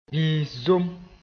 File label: "bĩis-som", moore